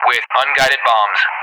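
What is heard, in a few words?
"with unguided bombs", English speech; Weapon; DCS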